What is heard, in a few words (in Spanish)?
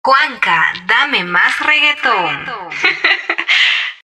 VOZ OFF FEMENINA